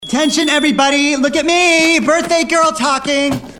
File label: Birthday Girl Talking